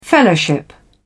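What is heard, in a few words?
"fellowship", British English, dictionary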